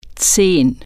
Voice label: "ten", German

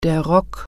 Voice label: "skirt", German

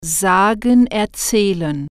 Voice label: "say tell", German

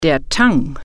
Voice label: "seaweed", German

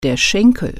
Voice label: "thigh", German

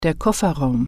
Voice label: "trunk", German

